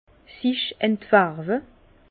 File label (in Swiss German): "sich entfarwe", Bas Rhin; vin; se décolorer